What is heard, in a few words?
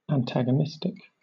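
"antagonistic", British English; pronunciations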